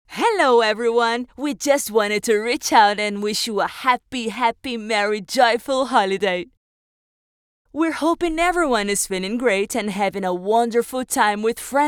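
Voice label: Brazilian Portuguese female Accent English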